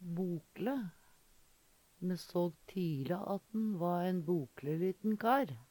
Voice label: "bok`le", Numedalsmål

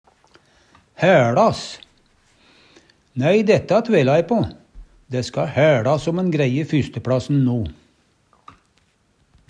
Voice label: haeLast, Numedalsmål; Nei, dætta tvila e på. Dæ ska hæLast om'n greie 1. pLassen no.

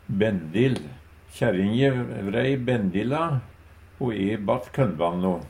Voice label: "bendil", Numedalsmål; Substantiv hankjønn

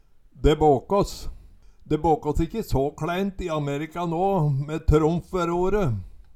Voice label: "de bakas", Numedalsmål; De båkås ikkje så kLæint i Ammerika nå, me Tromf ve rore

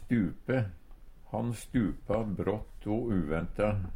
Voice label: stupe, Numedalsmål; Verb; Uttrykk

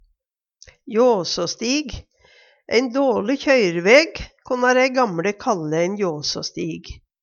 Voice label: jåsåstig, Numedalsmål

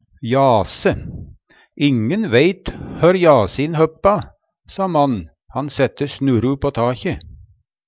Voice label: jase, Numedalsmål

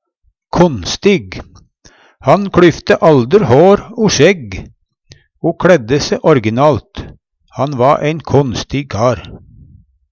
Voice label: konstig, Numedalsmål

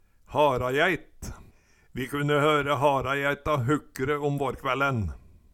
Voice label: harajaeit, Numedalsmål

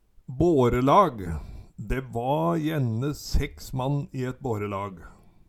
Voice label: "bårelag", Numedalsmål

